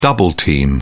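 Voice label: "double-team", British English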